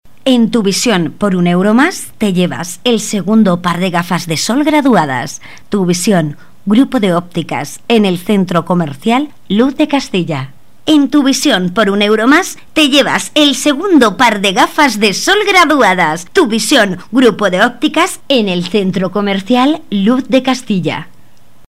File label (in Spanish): locutora Spain; Escena Digital